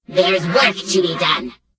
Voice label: Gnome Female Farewell; Death Knight

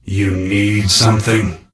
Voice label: Death Knight Male Low Greeting

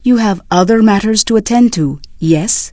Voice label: Draenei Female Military Pissed

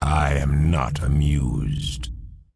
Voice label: Undead Male Warrior Pissed; NPC

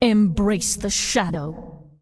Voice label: Undead Female Warrior NPC Farewell

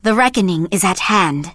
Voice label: Blood Elf Female Standard Farewell

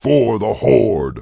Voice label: Orc Male Standard NPC Farewell

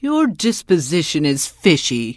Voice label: Tuskarr Female Pissed; NPC Tuskarr Female Warrior

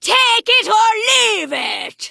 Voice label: Vrykul Female Magic User Vendor

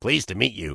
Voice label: Gnome Male Standard Greeting; NPC

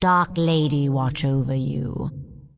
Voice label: Undead Female Magic Farewell; NPC

